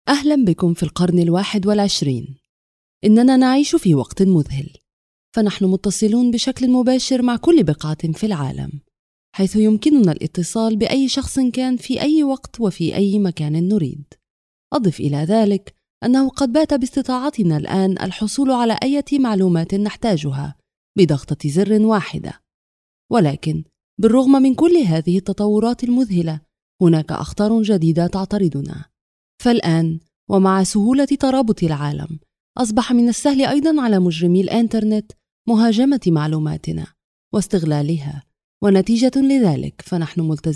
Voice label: arabic female

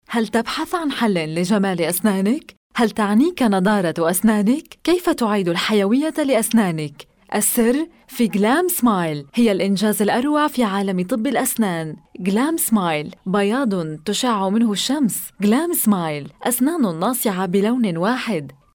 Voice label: arabic female

